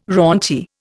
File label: "raunchy", Indian